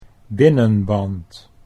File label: "binnenband", Dutch